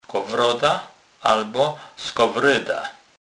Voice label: "skowroda", kurpie

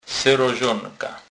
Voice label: "serozonka", kurpie

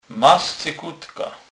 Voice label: "scykutka", kurpie

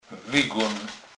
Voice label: "wygon", kurpie

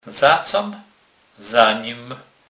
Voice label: "zacem", kurpie

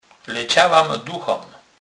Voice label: "duchem", kurpie; slownik

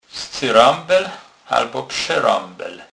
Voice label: "scerebel", kurpie

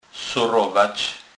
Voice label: "sorowac", kurpie

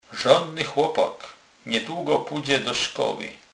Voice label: rzonny, Kurpie

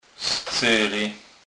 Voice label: "scery", kurpie

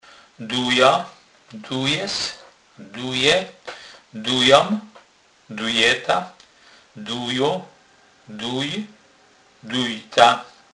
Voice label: "duc", kurpie; slownik

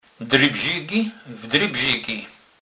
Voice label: "drybzigy", kurpie; slownik

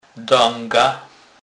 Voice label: "dega", kurpie; slownik

